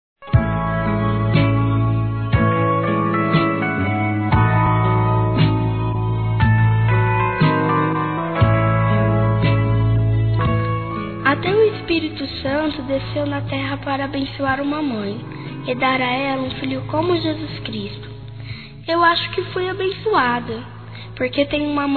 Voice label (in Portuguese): ANIV. MAMÃE-F, Telemensagens; Aniversário Infantil